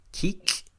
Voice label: kik', Quiché; sangre; INALI; UTexas; CHQETA

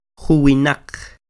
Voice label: Juwinaq, Quiché; veinte; twenty; INALI; UTexas; Números

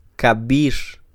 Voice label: Kab'ir, Quiché; hace dos años; two years ago; INALI; UTexas; CHQETA

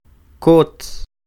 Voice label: kot, Quiché; curvo(a); curved, bent; INALI; UTexas; CHQETA

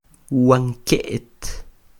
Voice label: wanke't, Quiché; mesa; table; INALI; UTexas; CHQETA

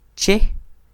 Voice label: che, Quiché; a él,ella, para él,ella; INALI; UTexas; CHQETA

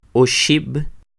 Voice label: Oxib', Quiché; tres; three; INALI; UTexas; Números

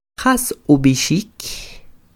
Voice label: Jas ub'ixik, Quiché; Cómo se dice…; How do you say…; INALI; UTexas; CHQETA

